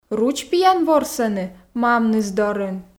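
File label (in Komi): ручпиян ворсӧны мамныс дорын, Komi, female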